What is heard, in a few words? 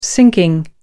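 "sinking", British English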